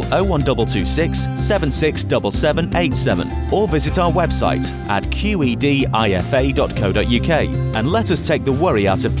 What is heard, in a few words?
Financial Male